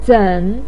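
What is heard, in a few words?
"zen3", Mandarin Chinese, pinyin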